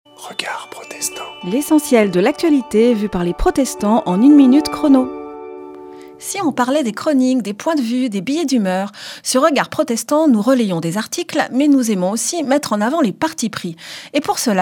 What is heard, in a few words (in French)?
Spot radio; Regards protestants